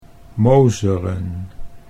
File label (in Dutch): "mozeren", Dutch